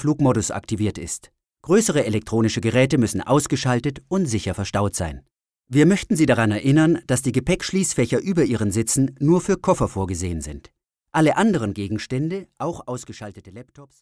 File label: male german boarding announcement